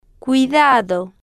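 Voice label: "cuidado", Spanish, female, slow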